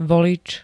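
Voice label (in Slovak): "volič", Slovak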